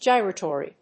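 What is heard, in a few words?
"gyratory", English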